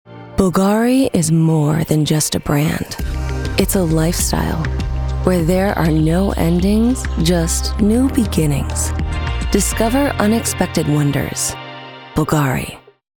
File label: sophisticated luxury romantic, commercial promo; bvlgari